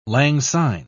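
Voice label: "langsyne", British English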